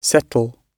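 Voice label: "settle", British English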